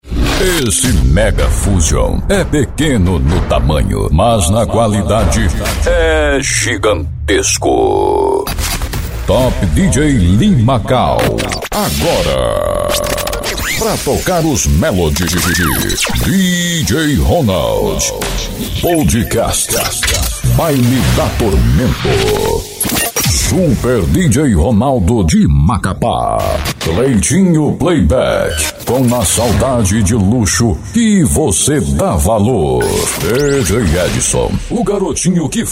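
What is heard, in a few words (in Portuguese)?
♂ locutor, vozes para vinhetas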